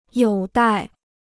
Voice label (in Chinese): "有待", Chinese